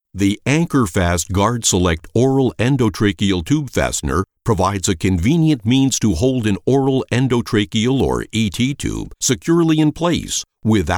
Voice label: Explainer Video Demo Reel

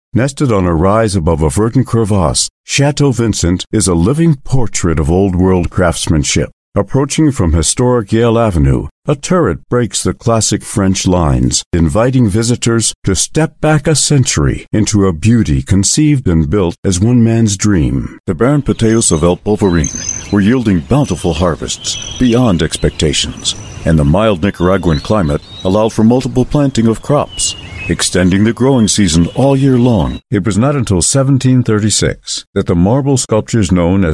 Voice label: Narration

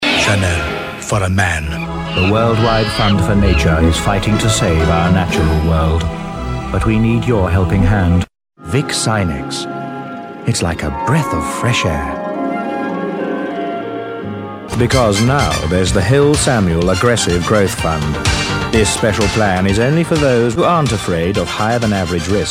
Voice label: British Voice Over Commercials

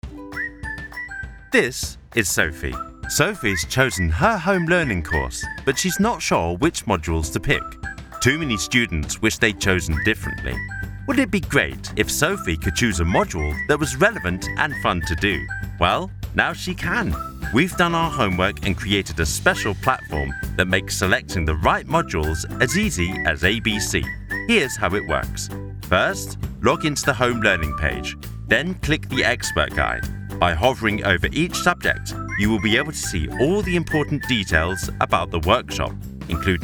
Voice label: Explainer

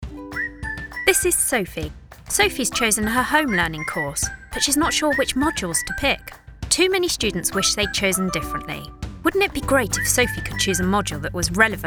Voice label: Explainer

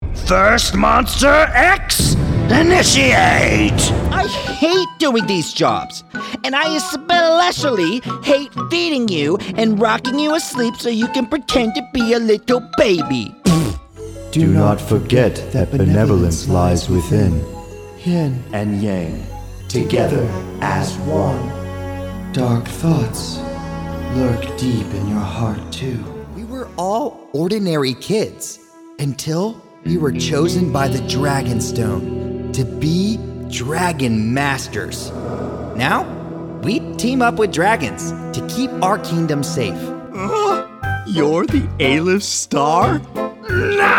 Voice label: Animation

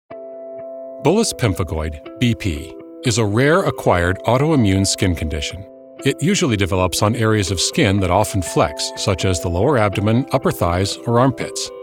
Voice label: MEDICAL NARRATION

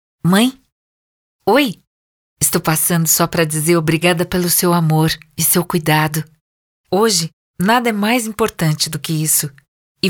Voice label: Spot; Unimed; Mães

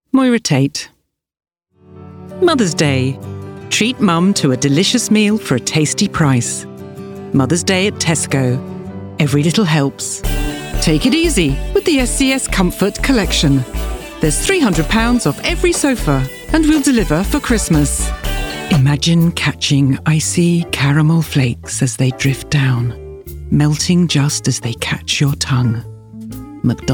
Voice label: adverts

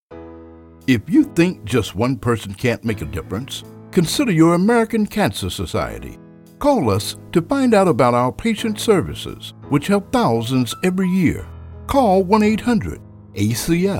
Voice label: commercial projects

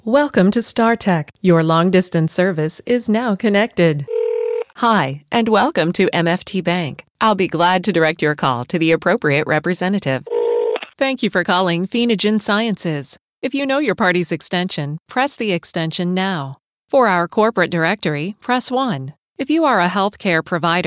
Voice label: IVR

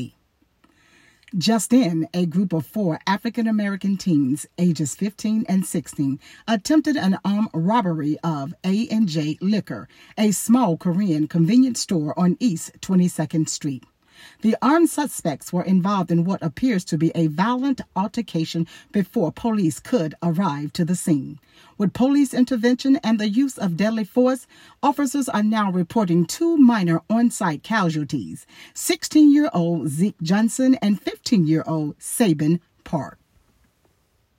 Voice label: Female News Anchor V.O.

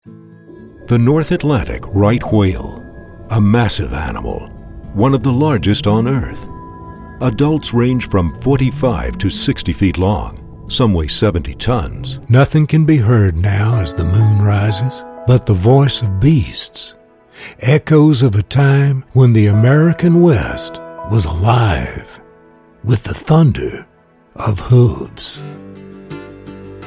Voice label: corporate narration

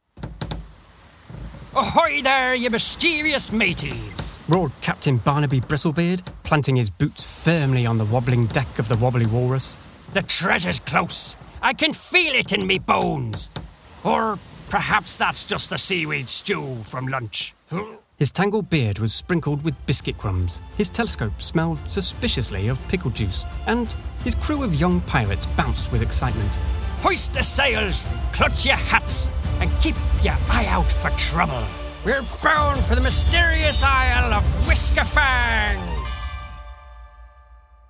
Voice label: Audiobook

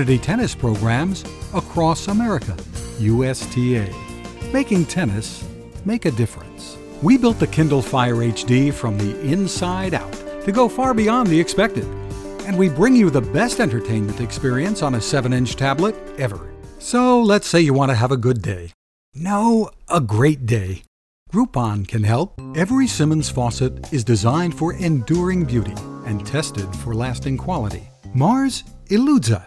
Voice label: voice over

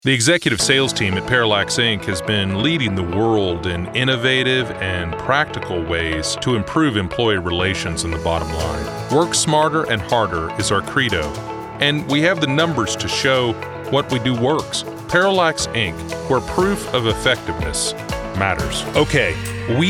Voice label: Corporate

